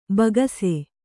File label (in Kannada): "ಭಾಗಸ", Kannada